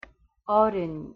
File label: "어른", Korean; pronunciation